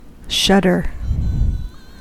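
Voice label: "shudder", American English; pronunciations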